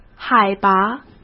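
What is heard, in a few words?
"海拔", Mandarin Chinese; chinese flashcards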